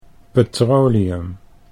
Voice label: "petroleum", Dutch

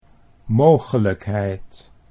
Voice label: "mogelijkheid", Dutch

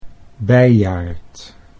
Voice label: "beiaard", Dutch

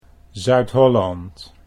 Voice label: Zuid Holland, Dutch

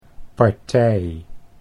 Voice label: "partij", Dutch

